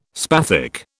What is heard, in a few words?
"spathic", British